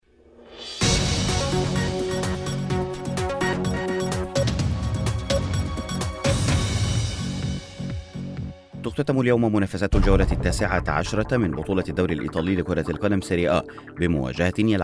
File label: arabic sports